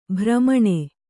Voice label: "ಭ್ರಮಣಿ", Kannada

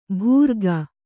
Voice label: "ಭೂರ್ಗ", Kannada

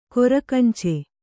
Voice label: "ಕೊರಕಂಚೆ", Kannada

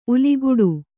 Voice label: "ಉಲಿಗುಡು", Kannada; uliguḍu

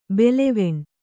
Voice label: "ಭುಲ್ಲವಣೆ", Kannada

